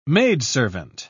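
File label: "maidservant", British English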